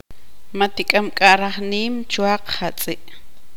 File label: tzutujil